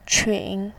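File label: "qun", Mandarin Chinese, third tone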